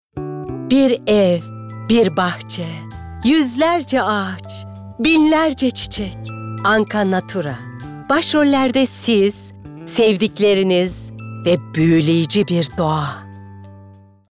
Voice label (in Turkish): Romantik